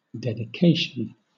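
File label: "dedication", British English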